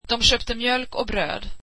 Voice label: they bought milk and bread, swedish